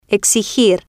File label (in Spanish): "exigir", Spanish